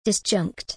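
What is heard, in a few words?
"disjunct", English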